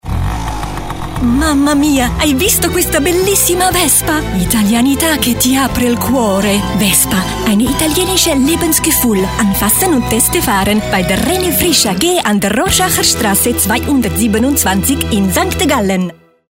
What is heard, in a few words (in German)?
Spot IT DE Akzent; Vespa